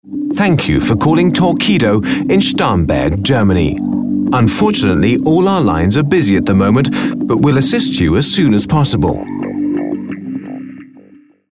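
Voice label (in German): telefonansagen sprecher British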